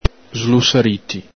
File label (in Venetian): "slusariti", Veneto